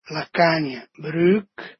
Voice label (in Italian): "la cagna", Dialetto di Albosaggia; Bruco